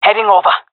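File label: on my way, fem; player vo; Counter Strike Model Pack; CS:GO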